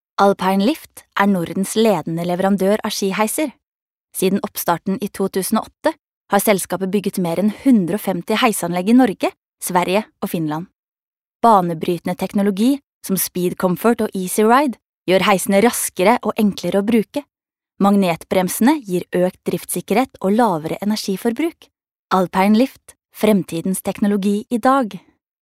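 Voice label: Norwegian informative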